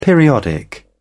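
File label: "periodic", British English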